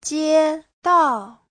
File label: "jie1 dao4", chinese; 街道; Giáo trình chuẩn HSK3